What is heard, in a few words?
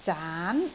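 "zaan2", Cantonese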